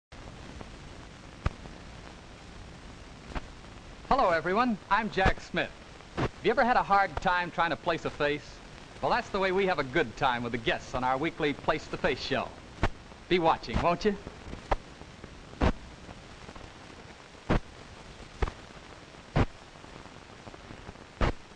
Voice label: Television Public Service Announcements and Program Promos